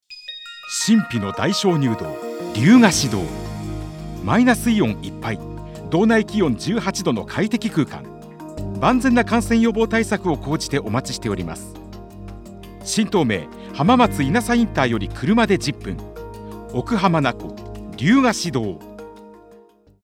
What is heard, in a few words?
male A